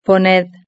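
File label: "poned", Spanish; verb-conjugator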